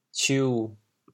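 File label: "ciu7", Teochew, chaoyang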